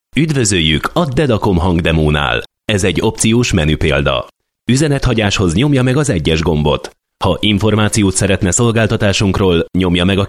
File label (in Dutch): Voice over Hongaars man